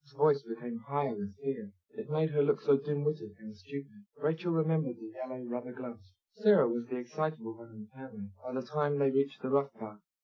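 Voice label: male speech; SiSEC10; underdetermined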